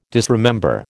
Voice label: "disremember", American